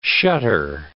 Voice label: "shutter", English